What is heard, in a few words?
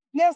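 Voice Acting es, female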